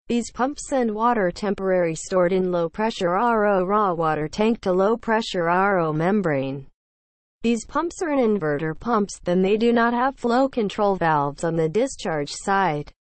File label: english, seawater desalination; watercompany